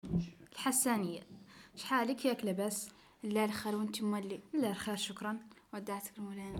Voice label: Hassaniya